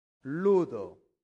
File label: "ludo", latin; santillana